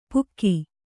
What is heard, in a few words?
"ಪುಕ್ಕಿ", kannada